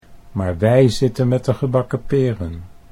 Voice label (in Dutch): maar wij zitten met de, Dutch